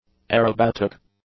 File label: "aerobatic", English; adjectives